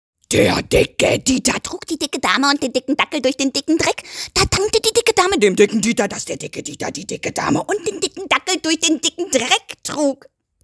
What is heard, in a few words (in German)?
zungenbecher mit wechselnden stimmen